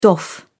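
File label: "doff", British English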